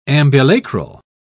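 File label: "ambulacral", English, British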